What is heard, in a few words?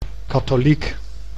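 "katholiek", Dutch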